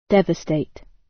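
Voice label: "devastate", British; Language, linguistics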